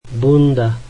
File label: slovak jacket